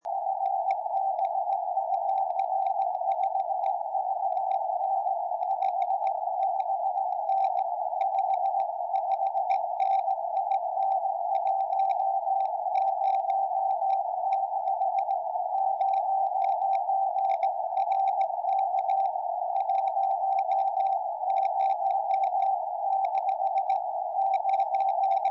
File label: 70cm, tropo